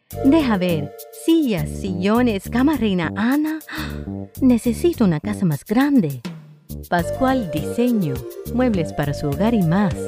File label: Spanish